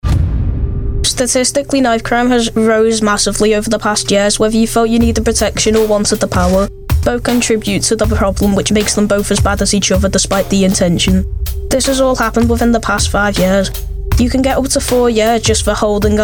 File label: podcast